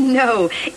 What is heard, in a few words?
"no", female amused; Voice; expletives